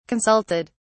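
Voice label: "consulted", British English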